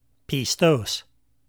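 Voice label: "pistos", Koine Greek; faithfulness; Galatians 5:22-23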